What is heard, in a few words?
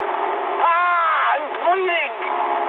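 damaged pilot mood high, speech English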